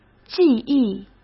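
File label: "记忆", Mandarin Chinese; chinese flashcards; hsk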